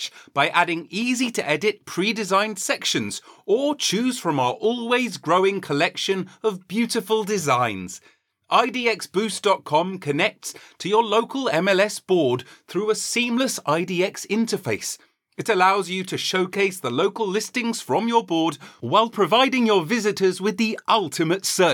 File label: english british real estate